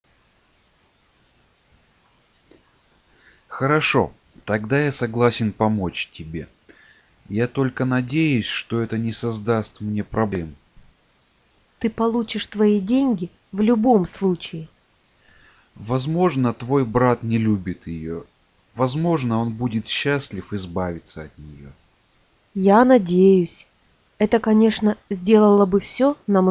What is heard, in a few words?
Russian simple story